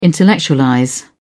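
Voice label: "intellectualize", British English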